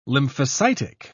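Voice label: "lymphocytic", English, British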